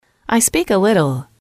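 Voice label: "I speak a little", English, female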